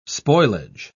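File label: "spoilage", British English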